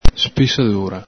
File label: "spisadura", Veneto